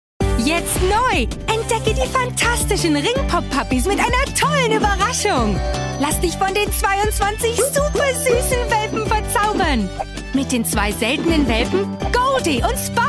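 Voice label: German, Commercial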